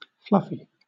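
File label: "fluffy", British English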